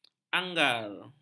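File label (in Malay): "anggar", Malay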